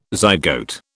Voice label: "zygote", British